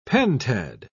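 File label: "pentad", English, British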